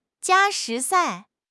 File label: "jia1 shi2 sai4", 汉语口语; 汉语口语新时代-初级下